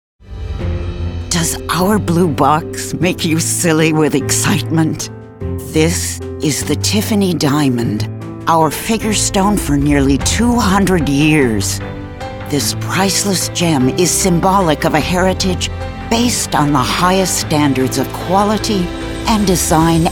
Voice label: Corporate, voiceover

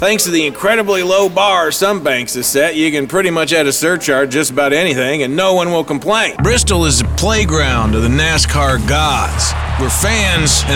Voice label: Southern accent, voiceover; Blue Wave Voiceover

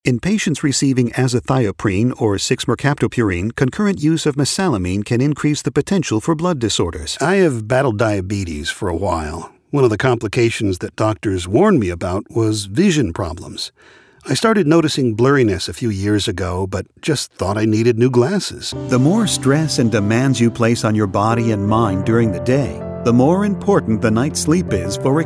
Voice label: Medical Narration

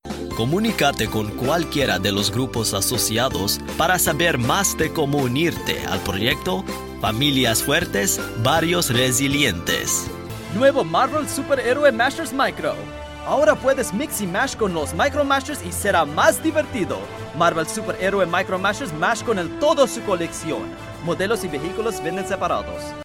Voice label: Kids Promo; ESP

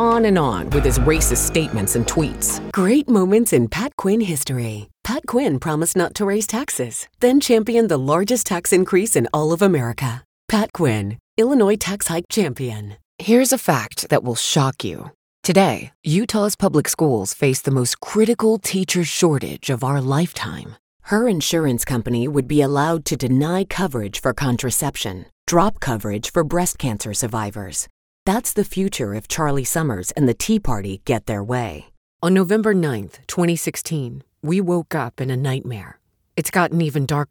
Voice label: Political, voiceover

